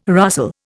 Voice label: "rustle", Indian